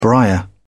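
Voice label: "briar", English, British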